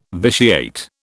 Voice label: "vitiate", British

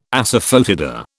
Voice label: "asafoetida", British

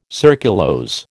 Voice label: "surculose", American

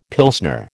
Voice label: "Pilsner", American

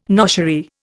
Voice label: "noshery", Indian

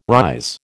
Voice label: "rise", American

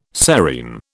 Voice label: "serein", British

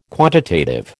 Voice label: "quantitative", American

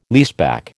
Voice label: "leaseback", American

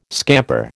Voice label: "scamper", American

